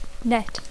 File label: "net", English